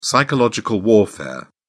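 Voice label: "psychological warfare", British English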